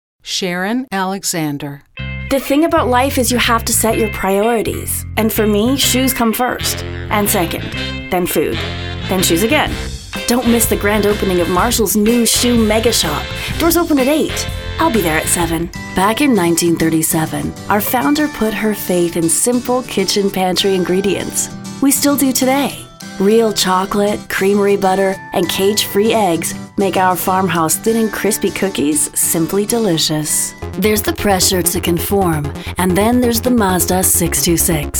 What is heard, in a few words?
Commercial